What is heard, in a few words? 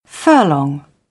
"furlong", British; AmericanBritish